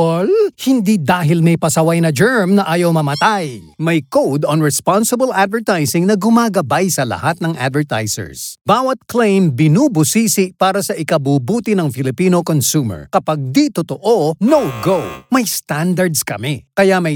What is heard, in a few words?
Tagalog Authoritative With SFX, Radio Commercials